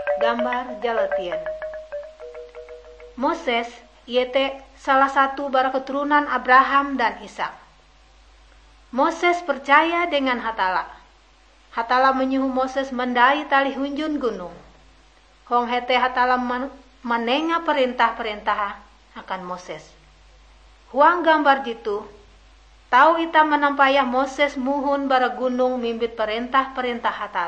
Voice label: kabar bahalap good news ngaju, drama; bible